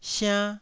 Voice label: "siann1", taiwanese